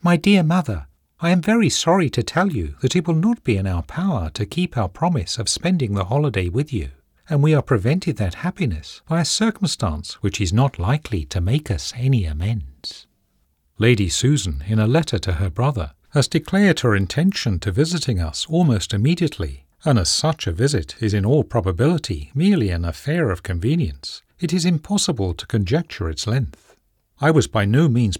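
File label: Romantic Fiction, voiceovers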